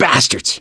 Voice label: reaction negative, voice; gambler

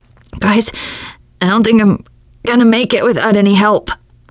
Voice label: going to die, teengirl, voice

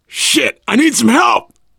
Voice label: incapacitated initial, voice, biker; Left 4 Dead 2; left4dead2 dlc3; survivor

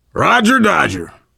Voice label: world farmhouse npc, voice, biker; Left 4 Dead 2; survivor

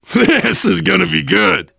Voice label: incoming, voice, biker; Left 4 Dead 2; left4dead2 dlc3; survivor

